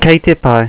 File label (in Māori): "kei te pai", Maori